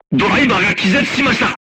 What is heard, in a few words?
voice message driver contused, crew tanks japanese